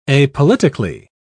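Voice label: "apolitically", British English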